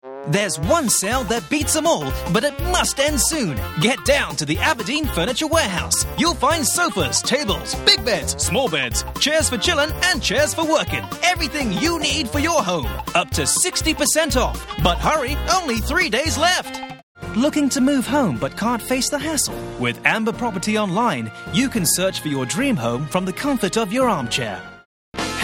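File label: British TV commercial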